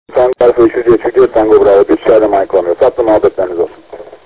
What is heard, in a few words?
QSO; KONFERANS ODASINA SATASMALAR